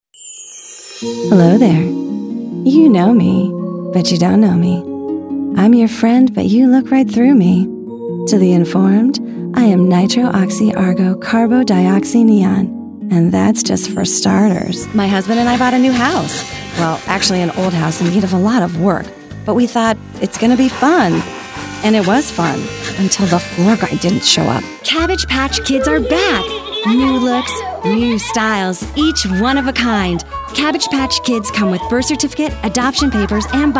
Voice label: Commercial